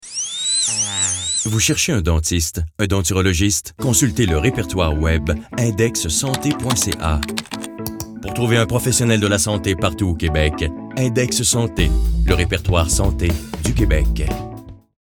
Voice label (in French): pub dentiste; indexsante